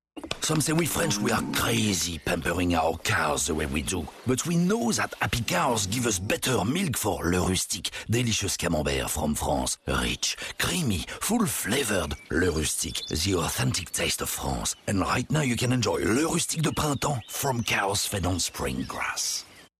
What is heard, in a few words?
spot TV; Le Rustique; en Anglais